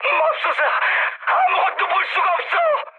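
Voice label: Korean searchlite mood high; War Thunder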